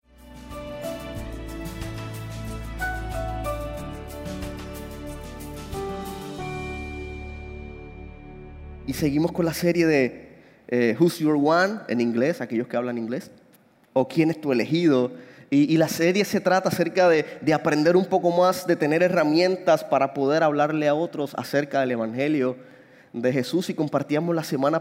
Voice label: Sermon Spanish

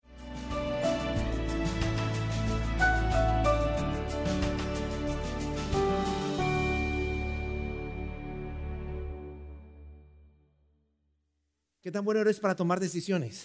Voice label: Spanish Sermon

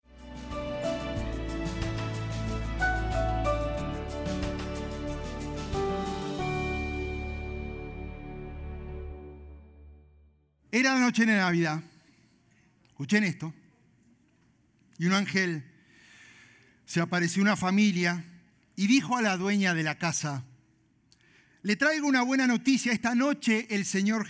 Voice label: SPAN SERMON

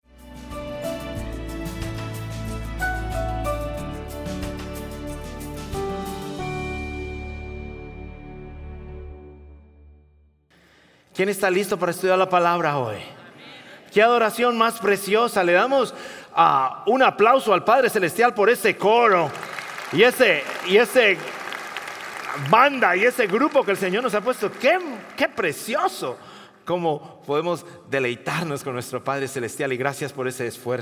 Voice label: SPA SERMON

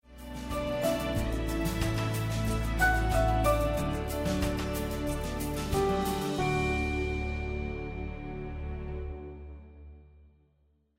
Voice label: Spanish Sermon

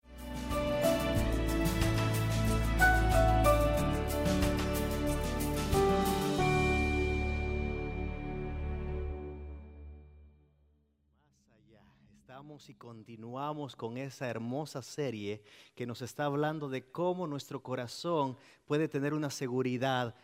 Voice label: Spanish Sermon

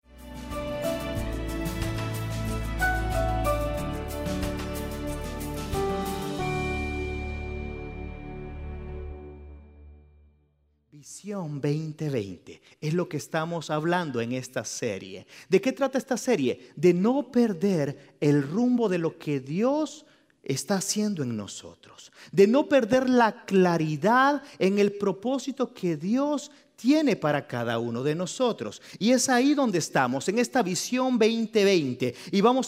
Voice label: Spanish Sermon

